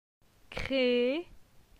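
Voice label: "Cre", French; phonetique; la syllabe e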